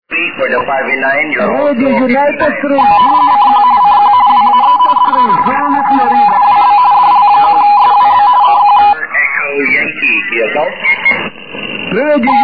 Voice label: 40m ssb